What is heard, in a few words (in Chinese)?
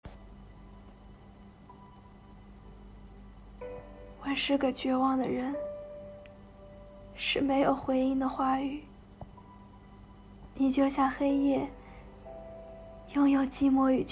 伤感文案; 最后的玫瑰